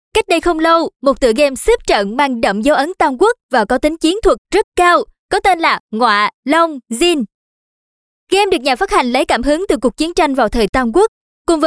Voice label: South Female, Vietnamese; Giới Thiệu Game Ngoalongzin